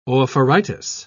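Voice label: "oophoritis", British English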